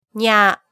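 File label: "nya", Japanese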